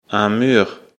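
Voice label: "mur", French; afrikalan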